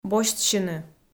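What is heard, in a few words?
"босьтсьыны", Komi-Zyrian, female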